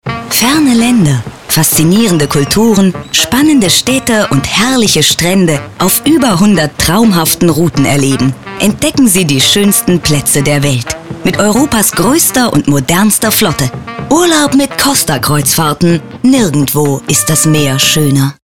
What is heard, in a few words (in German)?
werbung; costa kreuzfahrt; Muttersprache Deutsch